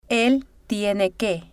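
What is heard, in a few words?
"el Tiene Que", Spanish, female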